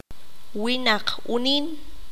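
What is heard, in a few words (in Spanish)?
"niño", Q'anjob'al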